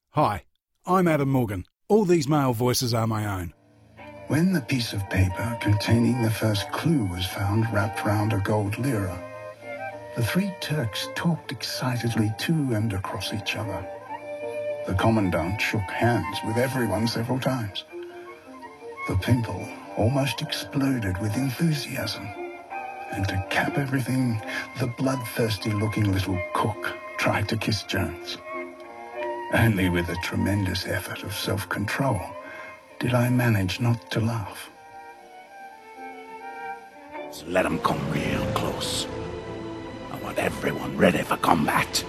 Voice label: Characters Accents